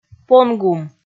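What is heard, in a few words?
"понгум", Komi, female; kpv audio dict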